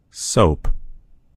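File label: "soap", American English; gcompris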